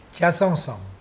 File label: "have diarrhea", Mokilese